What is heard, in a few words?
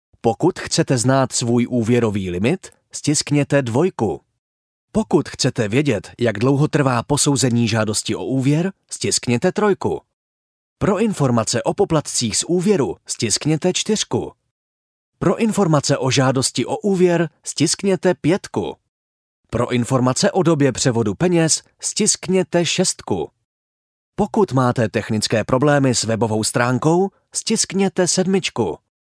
czech male